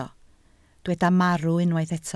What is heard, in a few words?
"marw", Welsh